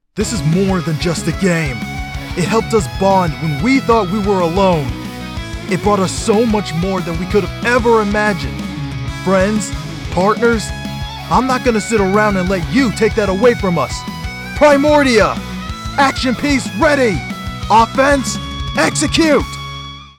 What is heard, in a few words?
Animation Action